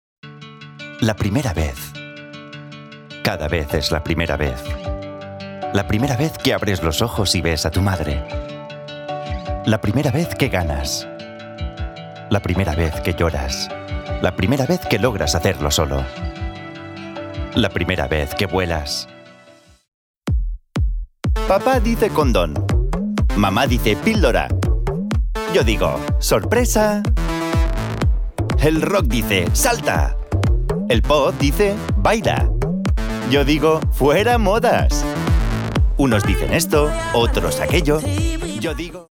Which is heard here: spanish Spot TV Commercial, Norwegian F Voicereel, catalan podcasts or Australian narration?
spanish Spot TV Commercial